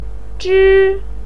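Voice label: "zhi1", Mandarin pinyin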